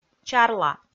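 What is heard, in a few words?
"чарла", Komi, female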